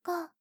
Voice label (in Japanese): 畳み掛けカウント